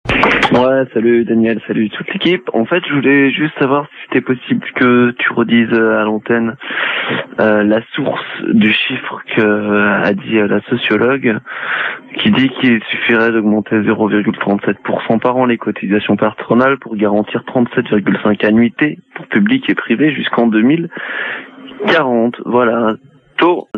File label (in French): Cotisations patronales repondeur